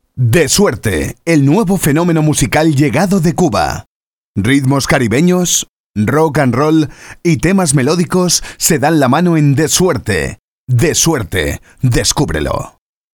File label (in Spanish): locutor, Voix off espagnole; Escena Digital